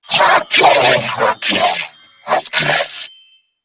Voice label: Female, Grineer; New War; Narmer; Patrol